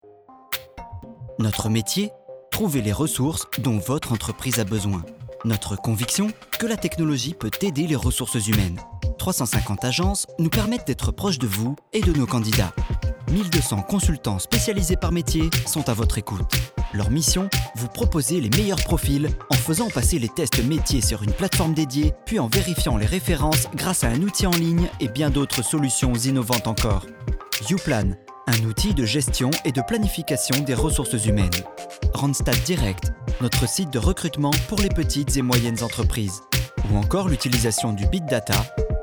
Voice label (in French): voix off homme fr